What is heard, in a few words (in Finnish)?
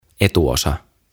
"Etuosa" "Front"